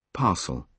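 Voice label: "parcel", British English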